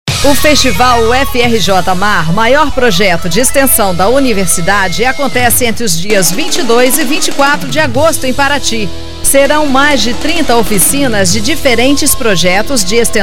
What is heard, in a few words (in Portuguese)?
spot radio